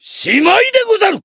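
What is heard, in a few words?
Voice finish, Japanese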